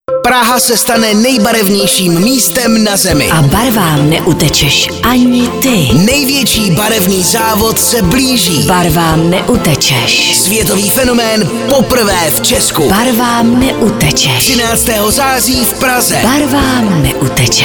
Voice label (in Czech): spot; barvy laky